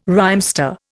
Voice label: "rhymester", Indian

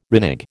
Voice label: "renege", American

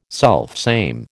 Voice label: "selfsame", American

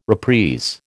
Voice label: "reprise", American

